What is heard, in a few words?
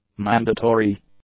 "mandatory", English; adjectives